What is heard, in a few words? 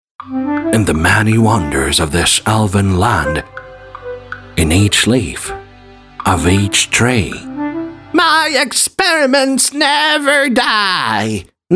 games Eng